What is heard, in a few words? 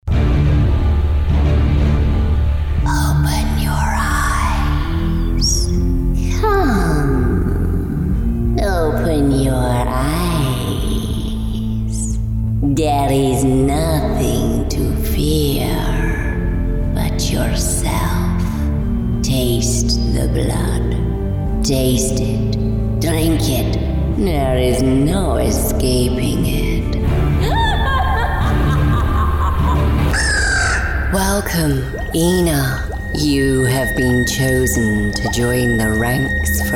Character